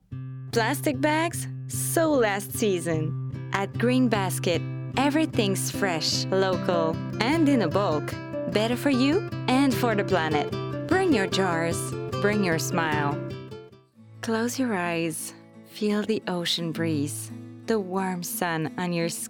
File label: english voiceover